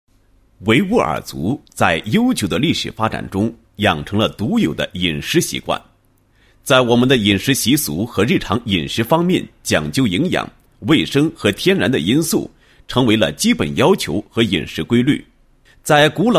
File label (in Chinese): 男 企业 农业畜牧业发展有限公司